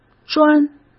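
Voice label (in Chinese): "砖", Mandarin Chinese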